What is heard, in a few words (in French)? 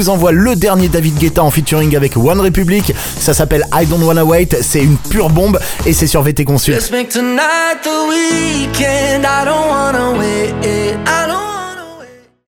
Voix Homme Hits Dance